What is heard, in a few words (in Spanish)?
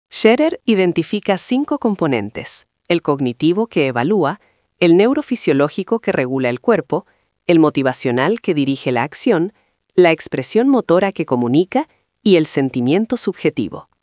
locucion